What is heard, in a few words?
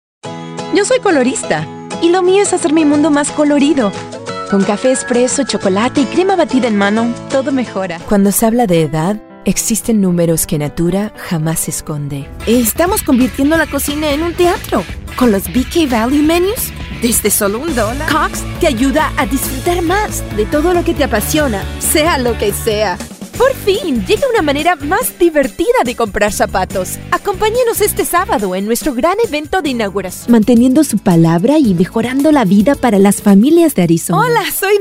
Commercial Spanish